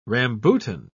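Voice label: "rambutan", British English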